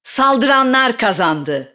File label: attacker win, announcer; Valorant